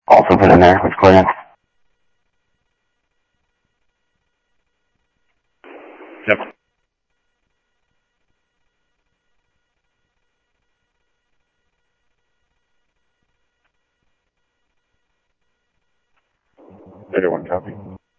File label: South Scottsdale Sheriff, scanner audio